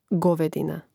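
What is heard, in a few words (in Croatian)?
"govedina", hrvatski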